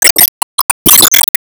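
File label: Voice Emo Surprise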